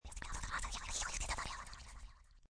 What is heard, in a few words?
Whisper Fast, Whispering, processed with effects, demonic, scary; Sound Effects:Voice; Voice Box